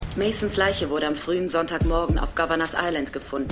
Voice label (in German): Nachrichtensprecherin; For The People